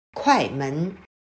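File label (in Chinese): "快门", Chinese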